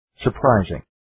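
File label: "surprising", English word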